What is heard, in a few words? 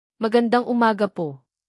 "magandang umaga", Tagalog